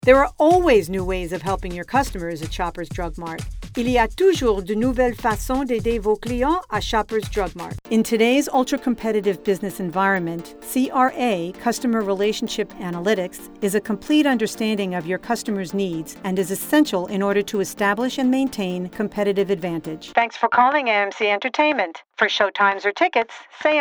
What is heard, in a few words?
NARR